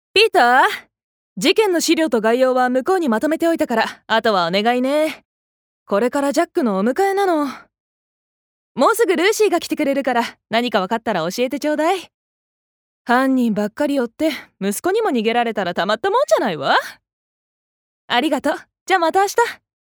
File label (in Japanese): 外画